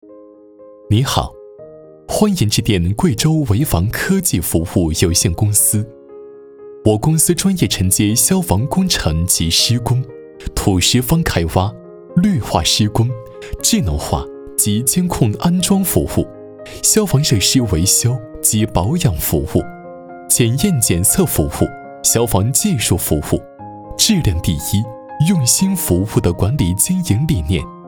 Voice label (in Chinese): 男 温馨彩铃; 维防科技